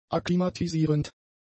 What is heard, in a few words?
"akklimatisierend", german; adjectives